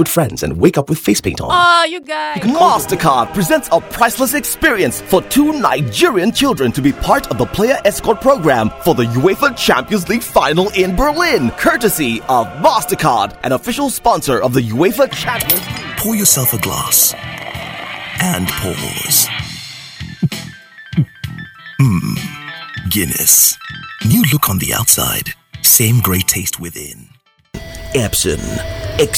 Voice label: Radio Imaging

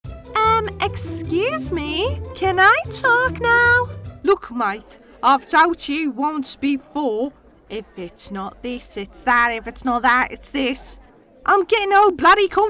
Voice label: Character Voice

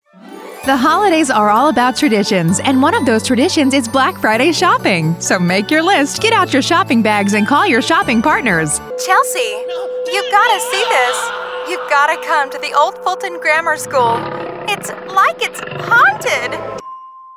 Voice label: Television

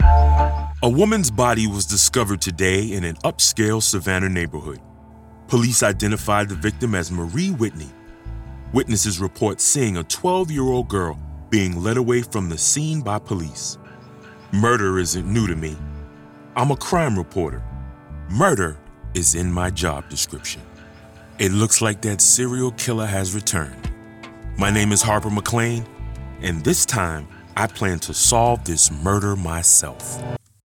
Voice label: BOOK TRAILER

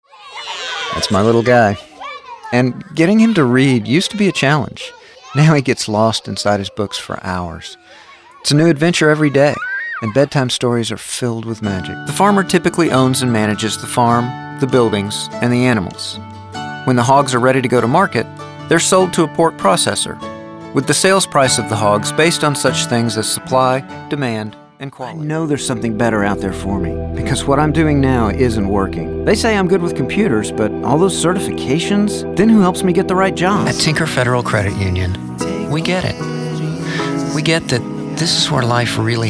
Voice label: conversational

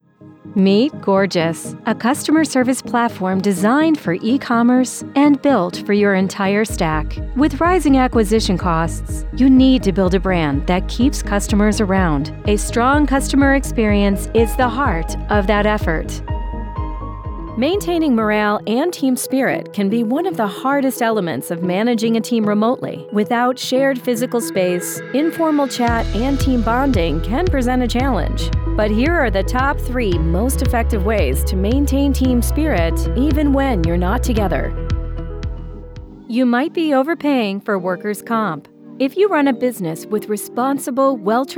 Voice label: E-Learning & Youtube Tone

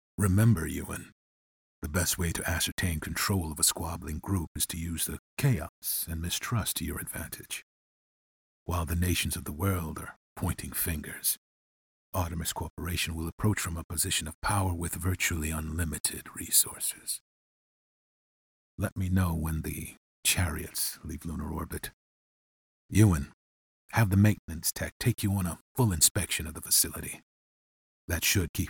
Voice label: audiobook

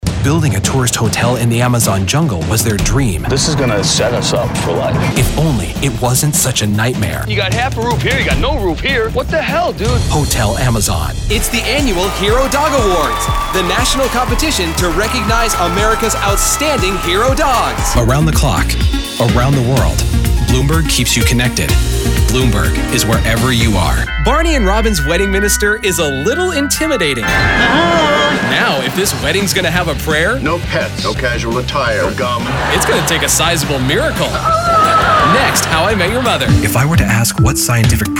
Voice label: Promo

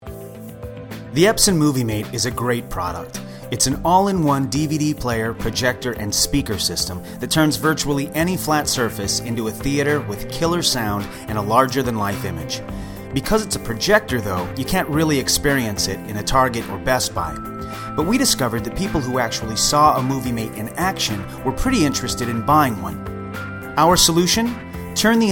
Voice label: Promo

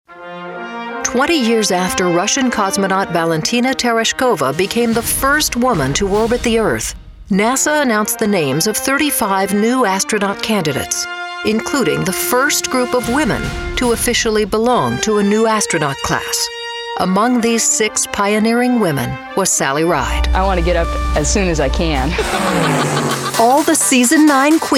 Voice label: TV NARRATION

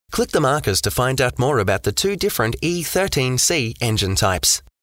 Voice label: Engine Types Trucks Educational

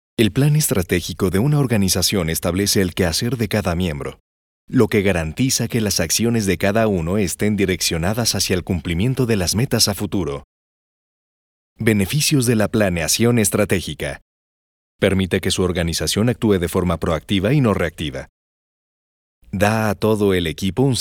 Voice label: E learnig

